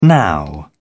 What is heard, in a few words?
"now", English